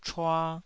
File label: "chua2", Taiwanese